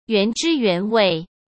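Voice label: "原汁原味" Mandarin Chinese; hsk; chinese-flashcards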